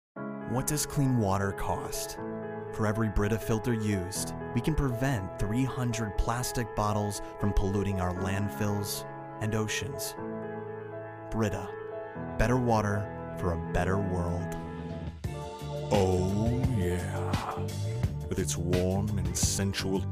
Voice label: english male voices american accent